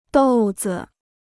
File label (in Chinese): "豆子", Chinese